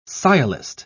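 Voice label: "sciolist", British English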